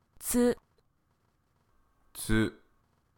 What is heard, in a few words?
"tsu", Japanese, hiragana